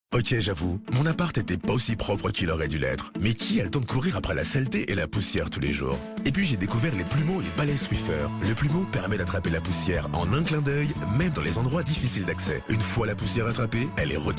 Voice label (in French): Publicite; Plumeau et Balai Swiffer les astuces menage de Carl